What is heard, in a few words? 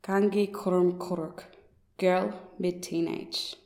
"kangi-kurrm-kurrk" girl mid teenage